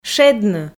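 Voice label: "шедны", Komi, female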